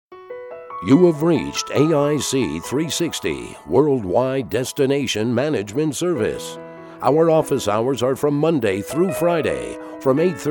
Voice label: on hold